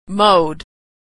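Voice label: "mowed", American English; verb; mode / mowed; Homophones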